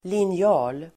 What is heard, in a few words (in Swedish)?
"linjal", Swedish